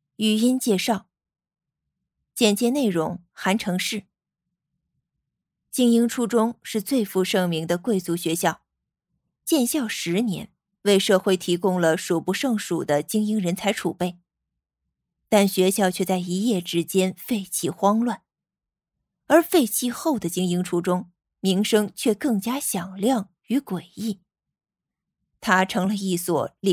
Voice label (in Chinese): 剧本杀配音